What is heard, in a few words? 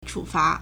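"chǔfá", Chinese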